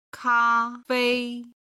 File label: "ka1fei1", Chinese